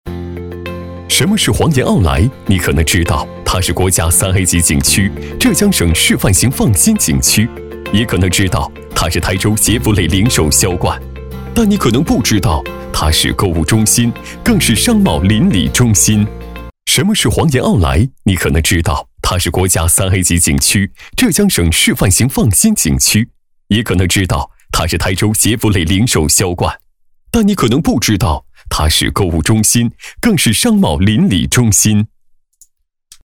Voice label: 男 年轻活力; 奥莱

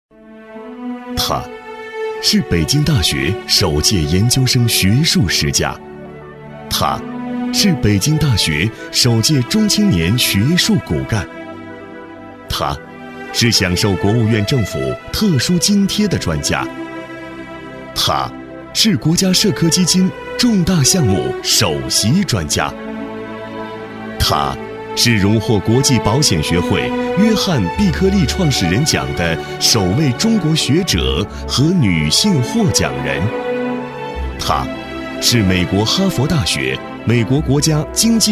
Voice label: 男 专题 人物

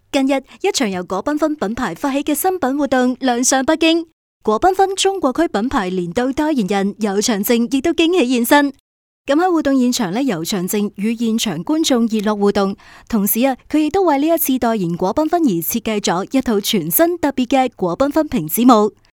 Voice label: 女【新闻】娱乐播报类

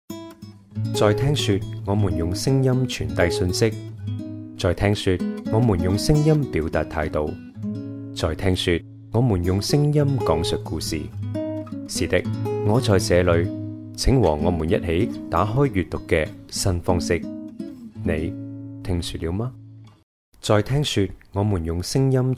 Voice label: 粤男 旁白

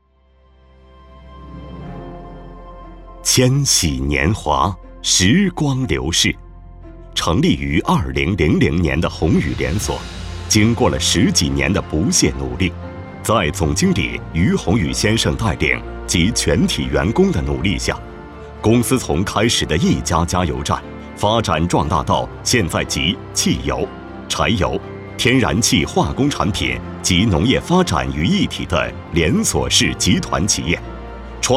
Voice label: 男【宣传】; 宏宇连锁

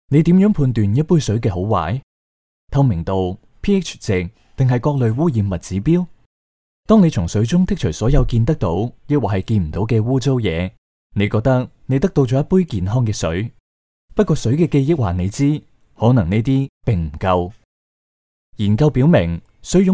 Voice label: 粤男 专题; 你如何判断一杯水的好坏？

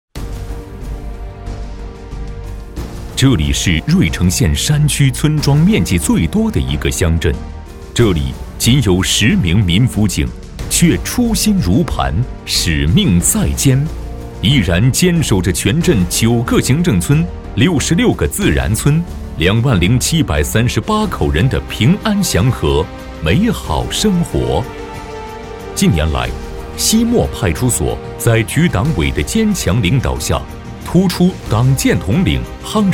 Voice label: 男, 宣传; 西陌派出所

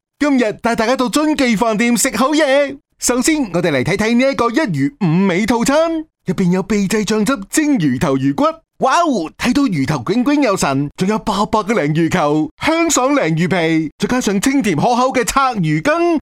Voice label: 男【广告】 餐饮; 粤男; 臻记

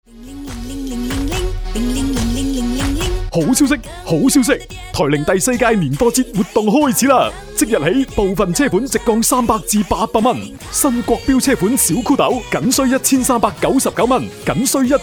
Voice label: 男【促销】; 粤男; 罗定台铃

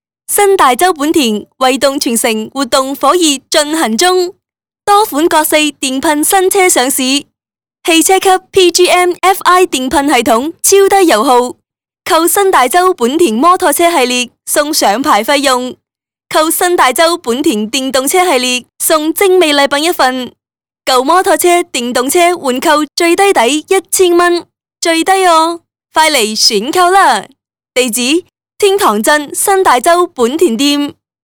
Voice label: 女【促销】; 新大洲本田

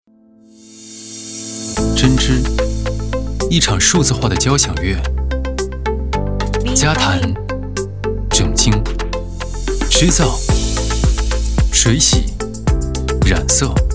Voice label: 男 科技 年轻; 织造的艺术

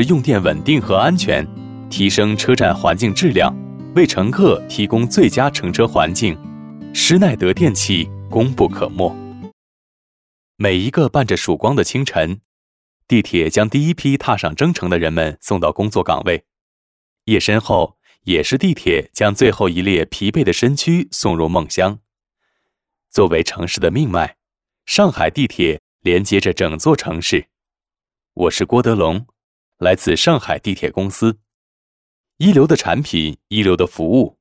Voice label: 男 旁白 地铁

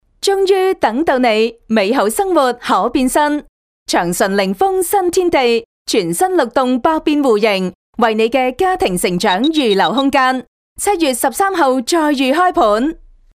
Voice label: 女 地产; 翔顺凌丰

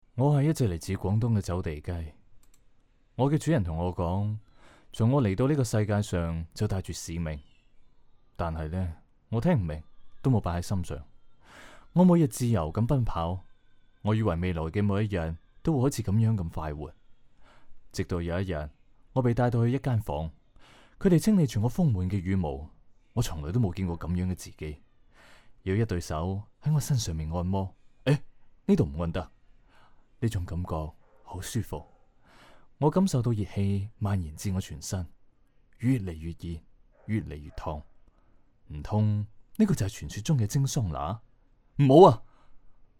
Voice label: 男 旁白 自然风, 粤男; 走地鸡

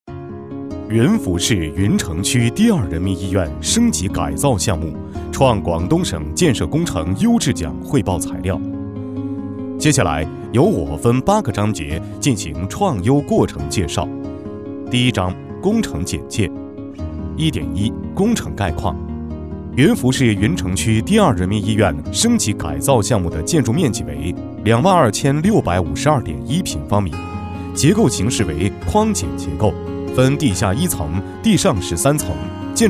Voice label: 男 宣传片; 医院

